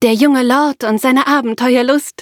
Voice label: loading, de; Cookie Run

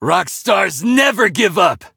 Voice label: defeat, en; Cookie Run

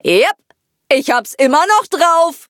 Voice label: victory, de; cookie run

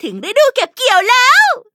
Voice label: level up, th; Cookie Run

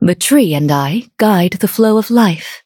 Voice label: touch, en; cookie run

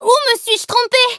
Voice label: defeat, fr; Cookie Run

